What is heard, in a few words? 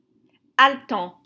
"Haletant", French